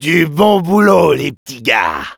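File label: Demoman cheers, French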